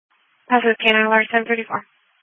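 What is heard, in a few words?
Police Patrol (Dispatch)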